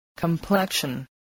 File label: "complexion", english